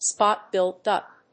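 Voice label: "Spot-billed duck", English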